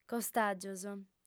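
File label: "costàgios", female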